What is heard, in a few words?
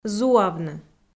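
"зуавны", Komi, female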